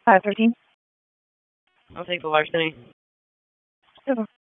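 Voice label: Police District Dispatch, p25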